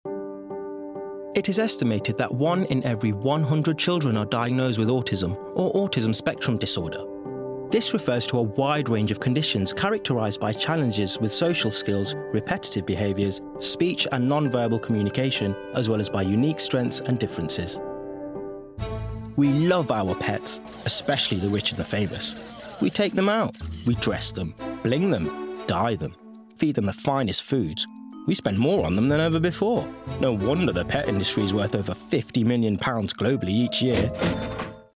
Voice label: Documentary